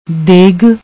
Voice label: "deg", Punjabi; ਦੇਗ